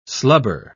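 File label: "slubber", English, British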